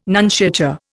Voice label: "nunciature", Indian